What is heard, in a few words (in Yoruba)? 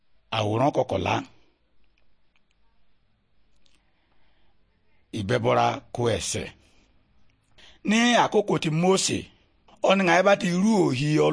good news yoruba, bible